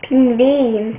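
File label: "pingvin", Swedish; ktuberling; tdegames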